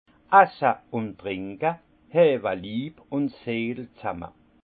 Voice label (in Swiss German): "asse un trinka", alsace; vin; boire et manger soudent corps et âme